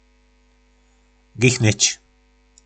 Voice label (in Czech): "gichnyc", nářečí Po našimu; Chrstnout